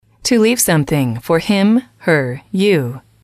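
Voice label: to leave something for him her, English, female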